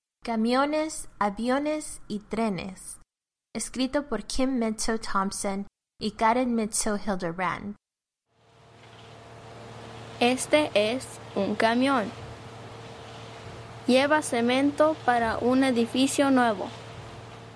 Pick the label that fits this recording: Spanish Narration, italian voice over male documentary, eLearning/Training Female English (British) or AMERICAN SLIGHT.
Spanish Narration